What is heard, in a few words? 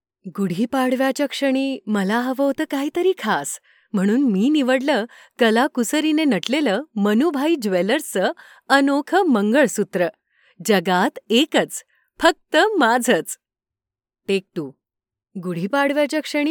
Marathi